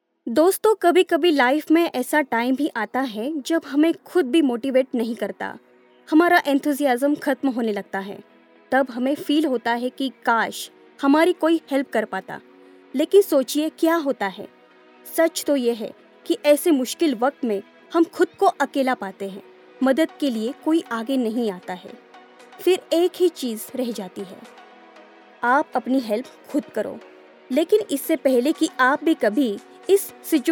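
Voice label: audiobook hindi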